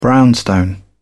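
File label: "brownstone", British English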